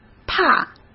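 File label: "怕", Mandarin Chinese; chinese-flashcards; hsk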